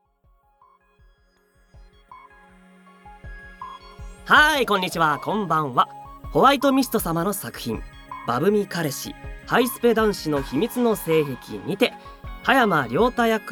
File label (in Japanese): 予告告知トーク; ばぶみ彼氏～ハイスぺ男子の秘密の性癖～